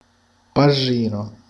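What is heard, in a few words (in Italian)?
"prete", Sicilian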